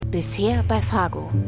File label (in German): Erzaehlerin; Stimmproben; Fargo